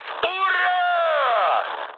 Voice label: player killed enemy, mood high, speech ru

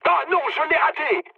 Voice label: bomb failure mood med, speech fr; War Thunder

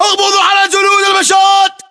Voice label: order attack infantry, battlechatter, english

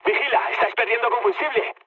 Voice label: tank damaged, mood low, speech; War Thunder

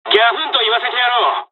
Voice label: dialog, dialogs japanese; us midway; War Thunder

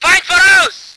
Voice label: voice ger vehicle

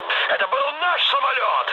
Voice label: ru player killed ally mood med; War Thunder

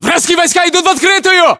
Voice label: threat infantry exposed, battlechatter ru

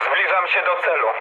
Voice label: near waypoint mood low, speech pol; War Thunder

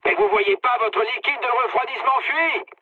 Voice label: damaged water mood med, speech fr; War Thunder

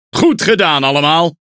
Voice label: Speech Quick Commands Good Game, Languages NL; World Of Warships

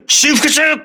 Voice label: repairing, voice message, crew ships japanese

